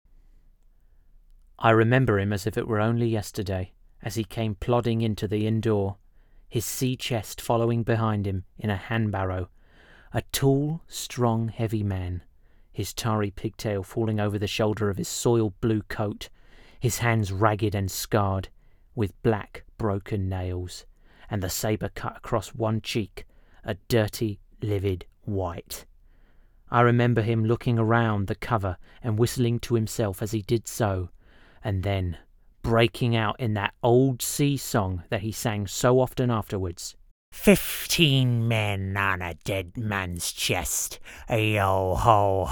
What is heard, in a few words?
Cockney English; Treasure